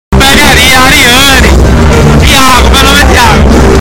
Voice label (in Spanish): nota de voz